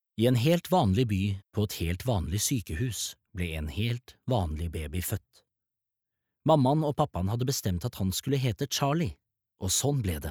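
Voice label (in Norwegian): barnebok, Norwegian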